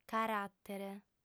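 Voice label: "caràtere", female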